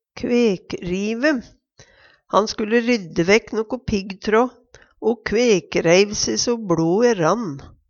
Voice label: kvekrive, Numedalsmål; Han skulde rydde vækk noko piggtrå o kvekreiv se så bLoe rann.

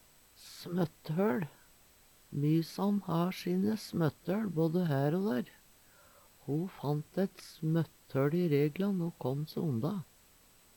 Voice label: smotthol, Numedalsmål; smøtthøL; Eksempel på bruk Mysan har sine smøtthøL, både hær o dær.

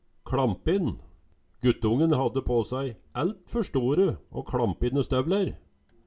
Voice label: kLampin, Numedalsmål

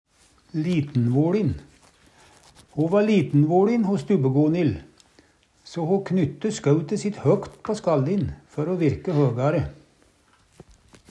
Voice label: litenvoLin, Numedalsmål

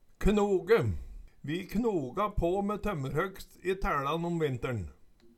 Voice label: "knoge", Numedalsmål; Vi knoga på me tørmmerhøgst i tæLan om vintern

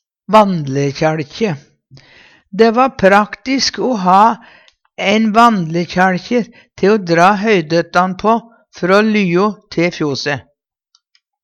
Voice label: "vandlekjaLkji", Numedalsmål

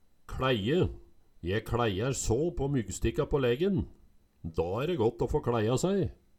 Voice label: kLæie, Numedalsmål

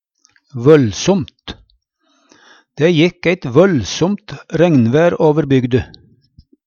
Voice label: "vøllsomt", Numedalsmål; Og bruka som forsterkingsord - t.d. vøldsomt mange

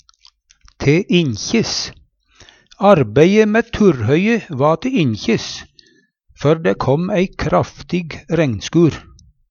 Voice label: te inkjes, Numedalsmål

